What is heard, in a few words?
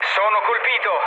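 Italian aircraft destroyed mood low, speech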